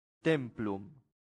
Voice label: "templum", latin; santillana